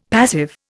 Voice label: "passive", Indian; Dictionary